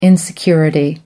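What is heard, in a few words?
"insecurity", British English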